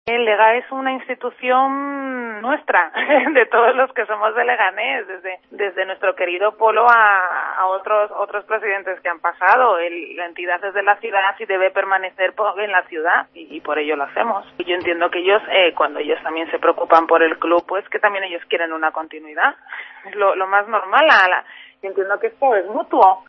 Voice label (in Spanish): noticias